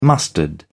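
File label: "mustard", British English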